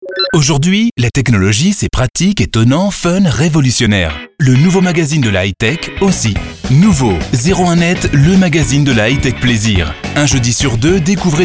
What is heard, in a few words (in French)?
dynamique, french